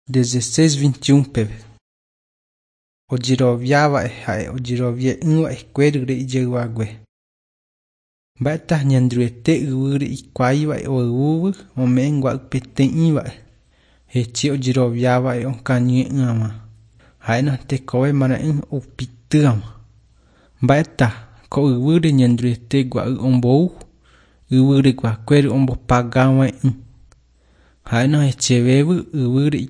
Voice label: john guarani mbya, bible